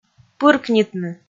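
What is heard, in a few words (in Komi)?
"пыркнитны", Komi, female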